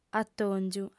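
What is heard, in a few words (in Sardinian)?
"atòngiu", female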